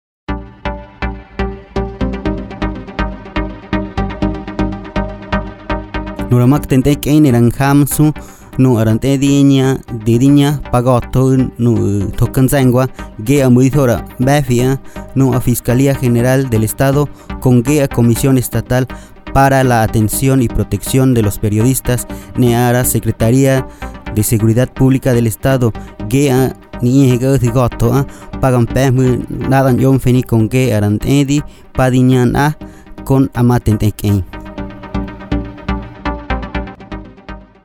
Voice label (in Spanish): Lengua Otomi; FI Huayacocotla; Periodistas